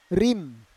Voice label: "rim", Roon